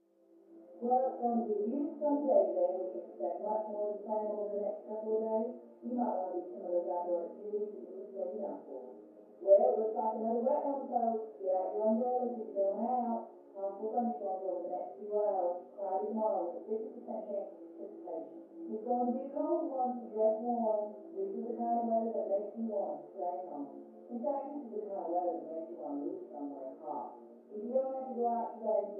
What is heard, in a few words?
Tv Southern Female, news